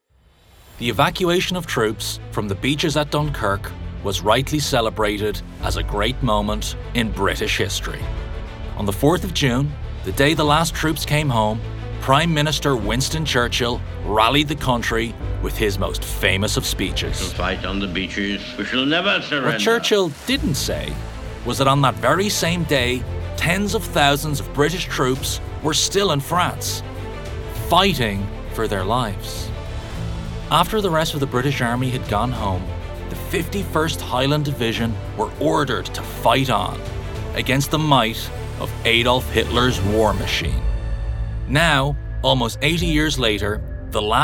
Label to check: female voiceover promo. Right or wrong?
Wrong